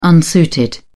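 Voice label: "unsuited", British English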